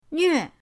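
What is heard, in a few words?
"nve4", Chinese; pinyindct-open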